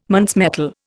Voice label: "Muntz metal", Indian

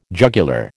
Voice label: "jugular", American

